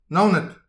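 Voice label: "naoned", Breton; petit precis de prononciation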